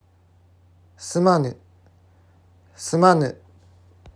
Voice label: Sumanu Pronunciation, Japanese